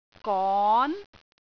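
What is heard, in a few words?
"gon2", Cantonese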